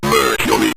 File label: "mercury", Synth Voice; Kenney's Sound Pack